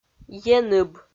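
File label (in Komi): "еныб", Komi, female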